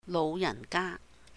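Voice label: "lóuh yàhn gà", Cantonese; elderly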